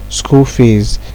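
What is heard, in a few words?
"school fees", Nigerian Pidgin, male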